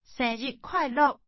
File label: happy birthday, Taiwanese